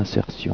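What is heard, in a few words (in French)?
"insertion", French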